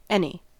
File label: "any", us